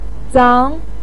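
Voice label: "zang", Mandarin Chinese syllable, second tone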